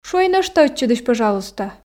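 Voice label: шуӧй нӧшта ӧтчыдысь пӧжалуйста, Komi, female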